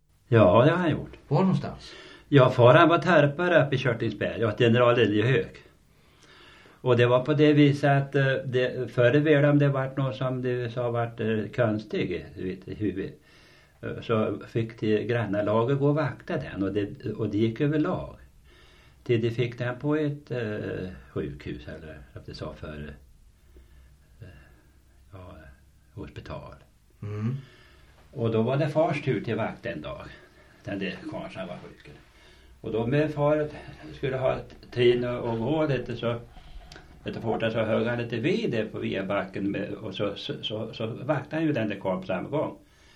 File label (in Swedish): Viby Hardemo, dialekter; Folkminnen; Svenska sagor